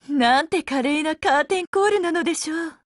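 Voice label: Halloween WIN Japanese, Voice; GF Alarm; Intruder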